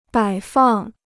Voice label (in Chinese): "摆放", Chinese